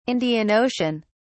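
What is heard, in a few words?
"Indian Ocean", British English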